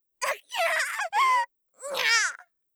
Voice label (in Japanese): ギャグ調な言葉; 効果音なし